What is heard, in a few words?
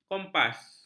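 "kompas", Malay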